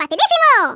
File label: "flawless", Hispanic; Worms Armageddon